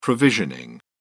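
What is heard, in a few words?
"provisioning", British English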